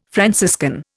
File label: "Franciscan", Indian